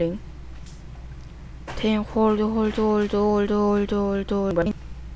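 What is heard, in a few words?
"hold", spoken word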